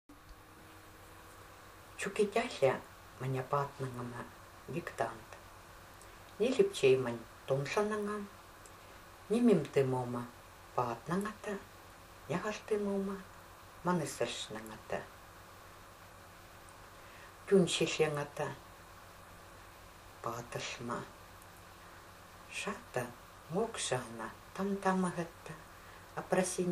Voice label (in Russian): neneckiy yazyk lesnoy dialekt aganskiy govor